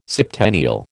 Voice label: "septennial", American

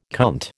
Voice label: "cunt", American

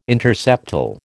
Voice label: "interseptal", American

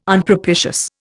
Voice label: "Unpropitious", Indian

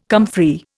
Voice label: "comfrey", Indian

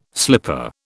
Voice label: "slipper", British

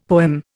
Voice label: "poem", Indian; Dictionary

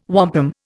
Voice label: "wampum", Indian

